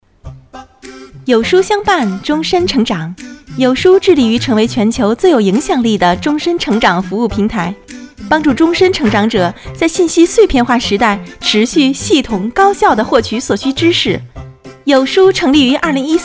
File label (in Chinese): 企业 宣传片